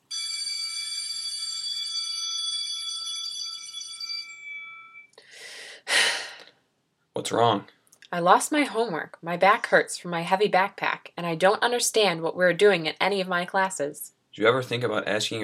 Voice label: radio ad